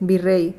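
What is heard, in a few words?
"virrey", Spanish; gleitlaute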